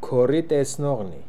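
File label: "Koritesnokhnian", pronunciations; Fourth Way; Beelzebub pronounciations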